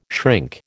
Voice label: "shrink", American